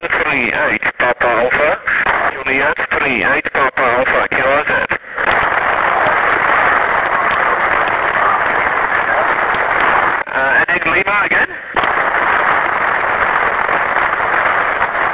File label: 160m ssb